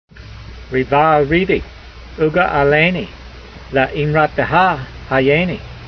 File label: voice, hebrew language; Psalm 119:154; reevah reevee ooge'a'laynee, le'eemrateha hayaynee